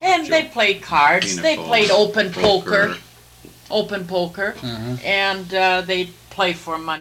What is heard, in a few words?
Personal history with 70 year old male and 77 year old female Utica Minnesota; american english dialect